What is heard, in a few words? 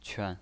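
"quan" neutral tone, pinyin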